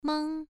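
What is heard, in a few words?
"mang", tone 1, pronunciation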